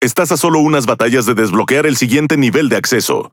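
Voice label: Spanish Speech; Access Level Unlock Few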